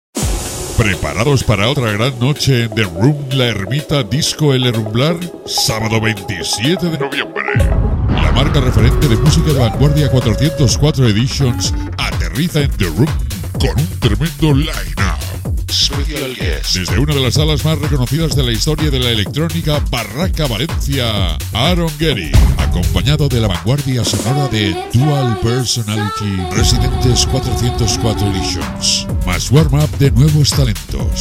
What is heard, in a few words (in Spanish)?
HOMBRE